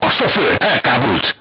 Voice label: voice message driver contused, hungarian; crew tanks

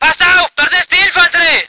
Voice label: voice ger vehicle

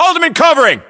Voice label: US response covering, battlechatter, english

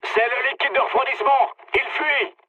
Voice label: damaged water mood med, speech fr; War Thunder

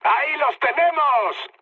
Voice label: enemy detected, mood high, speech; War Thunder

